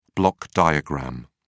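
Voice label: "block diagram", British English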